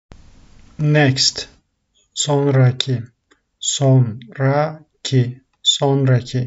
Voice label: "next", turkish; the talking turkish phrasebook